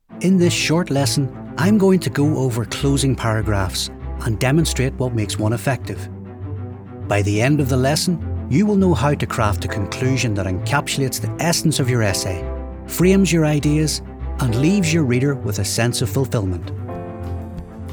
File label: Male voice